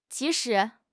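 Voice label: "ji2 shi3", Mandarin Chinese; HSK4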